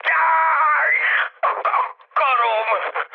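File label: Hungarian damaged pilot mood high, speech; War Thunder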